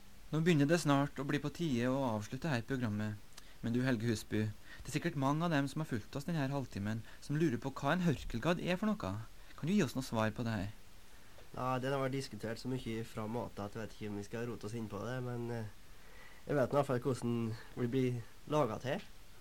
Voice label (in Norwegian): Tale